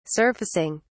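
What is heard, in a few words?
"surfacing", British English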